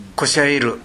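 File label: "koshaeru", Minamiyamagata dialect Japanese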